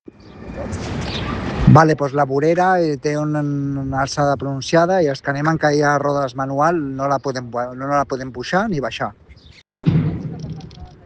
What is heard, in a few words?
Voice message